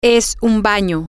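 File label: It is a bathroom, Spanish, female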